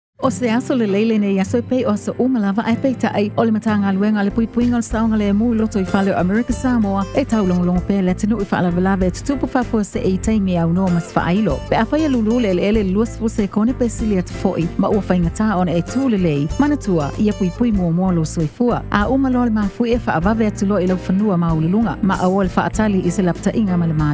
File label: earthquake tsunami Samoan; DHS; TEMCO